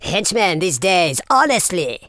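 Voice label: "oops", male villain voice; speech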